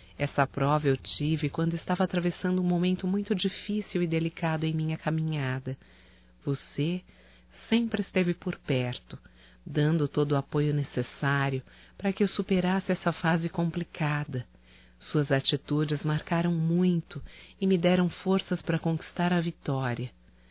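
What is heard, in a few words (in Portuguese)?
Amizade amigo female, Só Voz; Telemensagens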